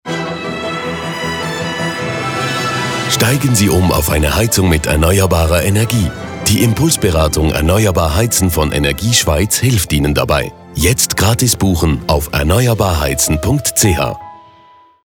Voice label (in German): Radiospot DE; CRK BFE Erneuerbarheizen